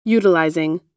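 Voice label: "utilizing", American English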